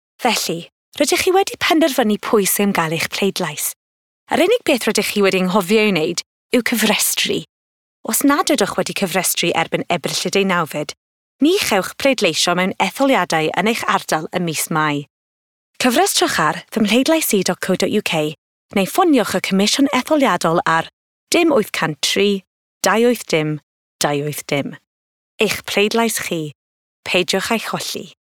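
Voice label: welsh commercial; 20s-40s. Female. Welsh.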